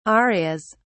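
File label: "arias", British English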